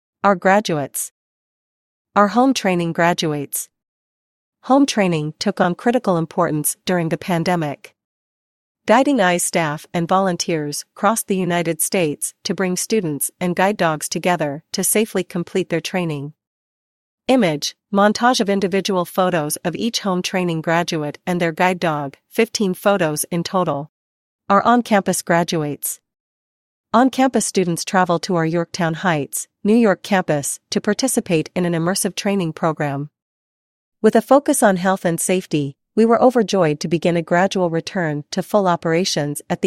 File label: voiceover